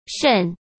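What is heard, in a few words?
"肾", Mandarin Chinese; hsk; chinese-flashcards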